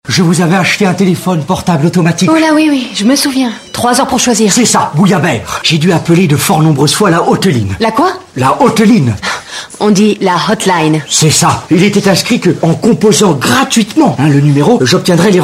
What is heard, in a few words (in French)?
pub comedie; plus jamais comme ca